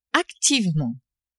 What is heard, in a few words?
"activement", French; adverbs